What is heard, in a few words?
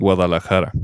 Guadalajara Pronunciation; mexico